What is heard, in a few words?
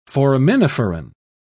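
"foraminiferan", en, uk British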